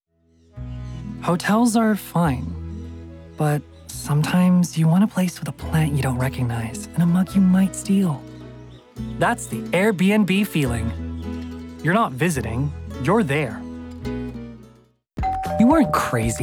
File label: Commercial reel